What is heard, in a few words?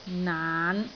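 "naan5", Cantonese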